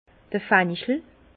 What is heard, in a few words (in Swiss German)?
"fenchel", Bas Rhin; fenouil; vin